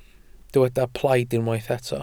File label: "plaid", Welsh